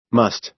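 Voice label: "must", American English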